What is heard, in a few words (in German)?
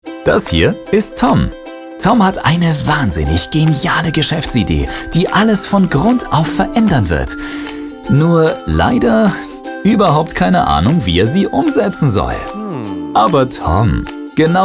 informativ